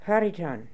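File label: "Hariton", pronunciations; Fourth Way; Beelzebub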